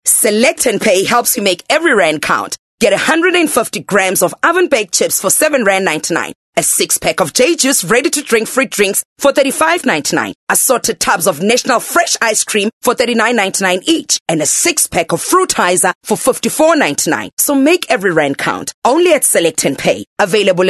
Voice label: English hard sell

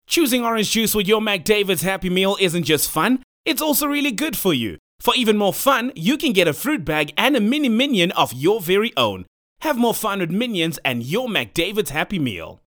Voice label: English hard sell; OSM Talent

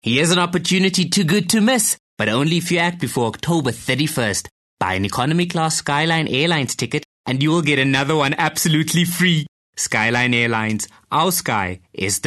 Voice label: English hardsell

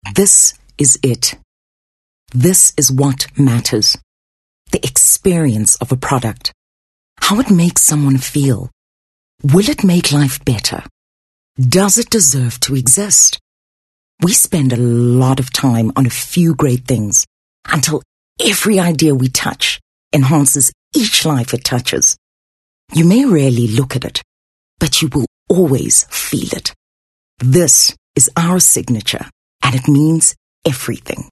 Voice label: English Softsell

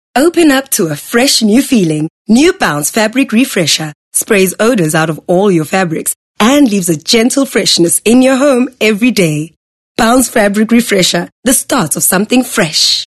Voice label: English Bright

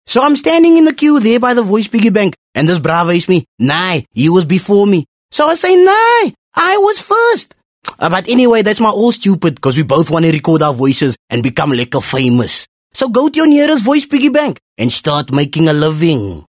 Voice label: English Cape Coloured accent

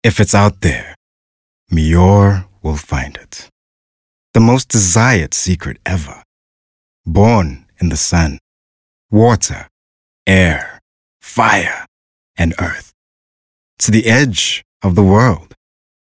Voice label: English Softsell

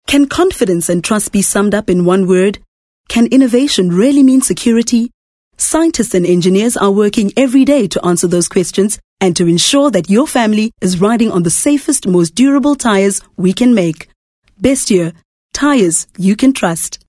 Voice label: English corporate

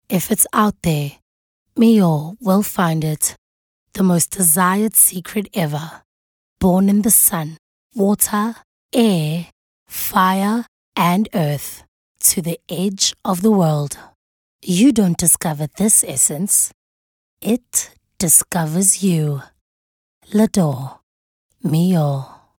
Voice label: English Intimate

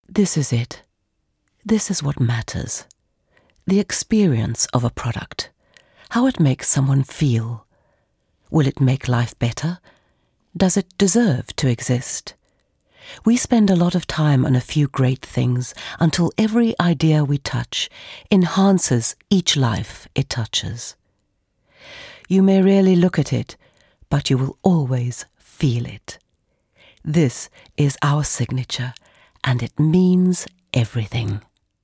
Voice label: English Conversational

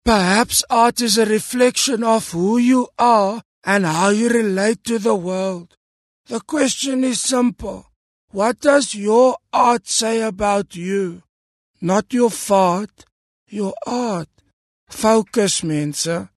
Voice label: English Character

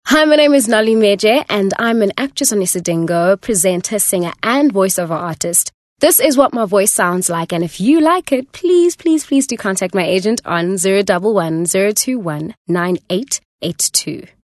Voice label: English Conversational

